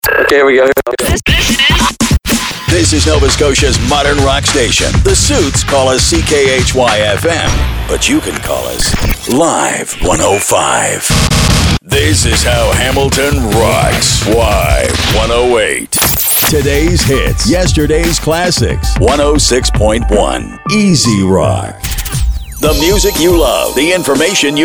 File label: Imaging Male; commercial voiceover